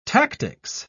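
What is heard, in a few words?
"tactics", British English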